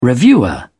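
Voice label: "reviewer", British English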